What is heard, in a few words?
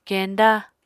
"kenda", Apatani